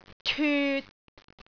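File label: "tyut3", Cantonese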